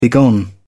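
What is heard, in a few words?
"begone", en, uk British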